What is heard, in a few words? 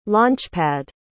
"launchpad", pronounce